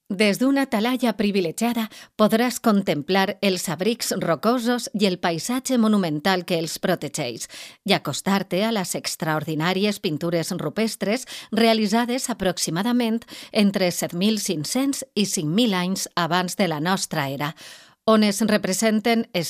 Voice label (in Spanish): locutora valenciana valencian voiceover